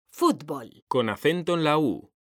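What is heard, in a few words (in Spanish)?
"fútbol", Spanish, female; mekanta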